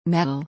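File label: "metal", English word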